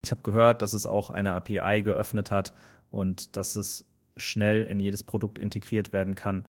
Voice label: German; fish speech